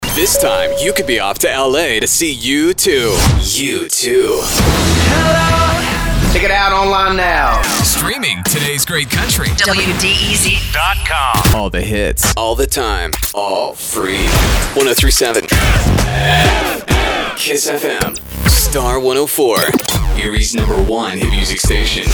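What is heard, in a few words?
voice over overall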